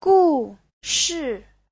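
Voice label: "gùshì", Chinese; 故事; Giáo trình chuẩn HSK3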